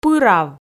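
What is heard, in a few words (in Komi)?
"пырав", Komi, female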